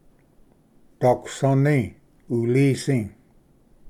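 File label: "daquasvni ulisi", Cherokee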